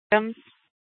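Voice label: Police Department Dispatch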